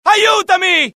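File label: alert, Italian; mohaa; dialogue; sighted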